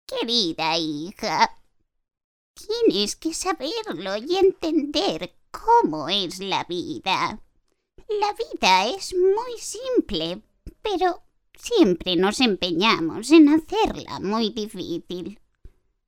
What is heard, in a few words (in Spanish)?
locutora anciana senior voiceover